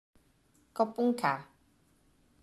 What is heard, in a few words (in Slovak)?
"dakujem" dievca